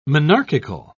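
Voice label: "monarchical", British English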